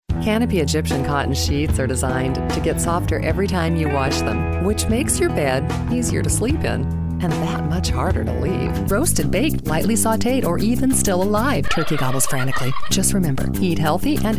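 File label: Commercial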